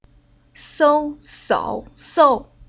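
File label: "Sou", chinese syllable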